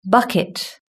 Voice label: "bucket", british english

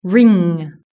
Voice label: "ring", British English

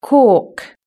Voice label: "cork", british english

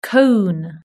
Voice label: "cone", british english

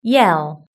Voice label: "yell", british english

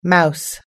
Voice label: "mouse", us english

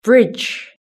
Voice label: "bridge", british english

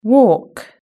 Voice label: "walk", british english

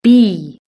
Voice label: "bee", british english

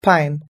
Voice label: "pine", us english

